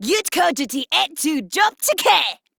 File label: Attack, Female; en; Modular Corpus; Battle Barks